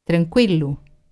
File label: quiet, portuguese brazilian